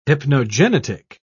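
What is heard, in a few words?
"hypnogenetic", British English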